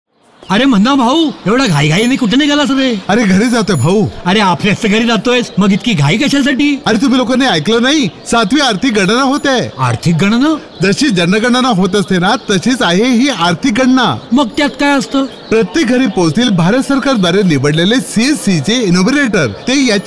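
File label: Marathi Radio Spot; economic census; seventh economic census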